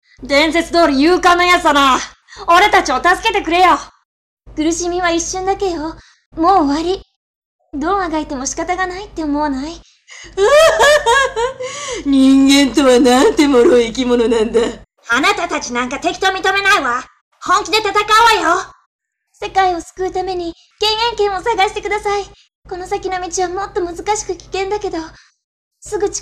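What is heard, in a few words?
Japanese, game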